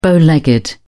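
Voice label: "bow legged", British English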